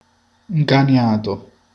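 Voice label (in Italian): "nervoso", Italian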